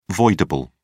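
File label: "voidable", British English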